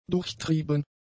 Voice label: "durchtrieben", german; adjectives

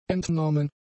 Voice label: "entnommen", German; adjectives

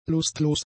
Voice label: "lustlos", German; adjectives

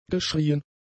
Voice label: "geschrieen", German; adjectives

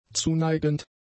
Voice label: "zuneigend", German; adjectives

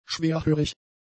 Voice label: "schwerhörig", German; adjectives

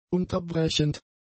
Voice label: "unterbrechend", German; adjectives

